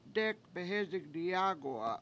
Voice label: "dE7ek behEzing diyAAgu7a" I pick little rocks, Washo